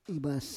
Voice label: "ivas", Roon